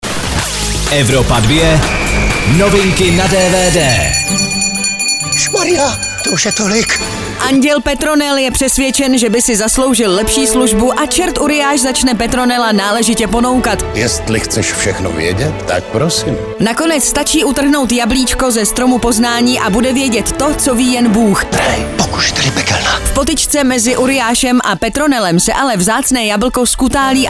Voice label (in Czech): dvd news; evropa2; andel pane 2